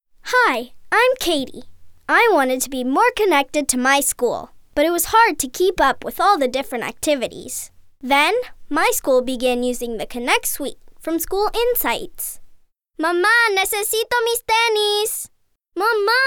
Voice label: Bilingual Demo

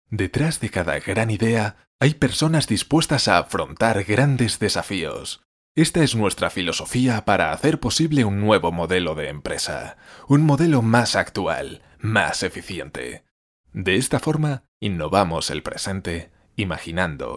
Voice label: corporative

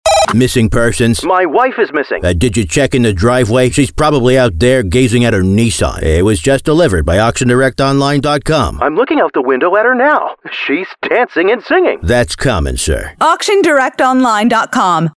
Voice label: Radio Commercial; Auction Direct

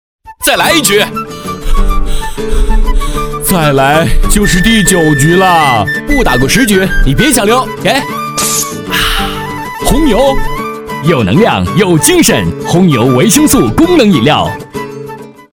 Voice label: Ad

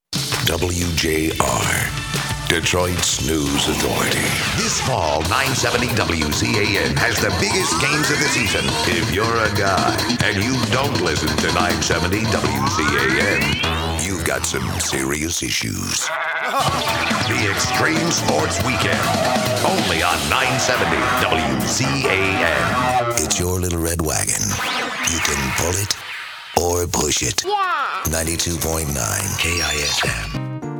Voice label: Radio Imaging

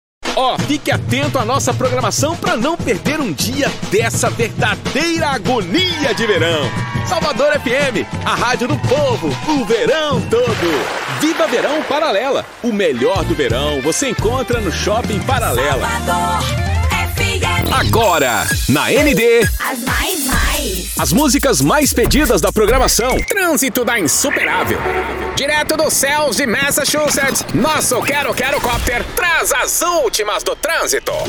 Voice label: Radio Imaging

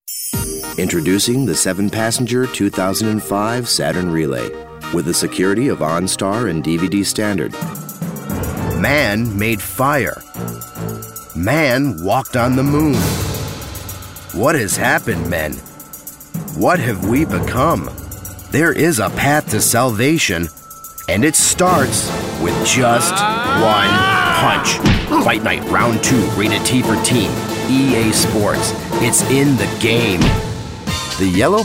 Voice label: Commercial VO

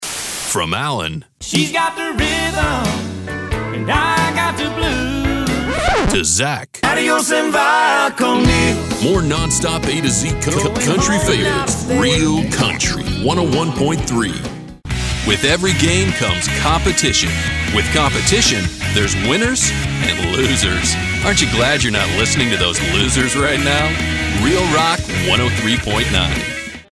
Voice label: radio imaging

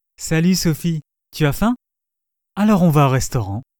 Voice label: Bilingual French and English Male Voice Over

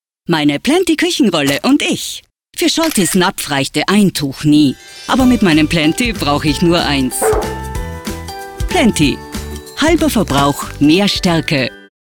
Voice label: commercial enthusiastic emotional

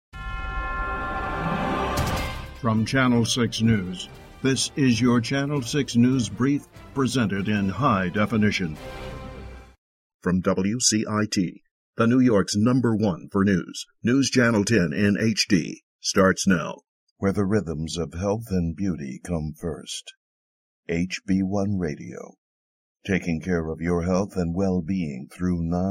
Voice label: Imaging

